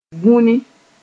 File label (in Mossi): "vũni", moore; become deep